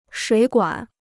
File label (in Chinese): "水管", Chinese